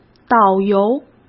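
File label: "导游", Mandarin Chinese; chinese flashcards; hsk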